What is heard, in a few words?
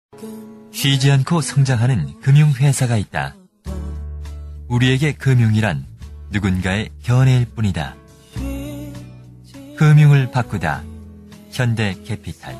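Korean male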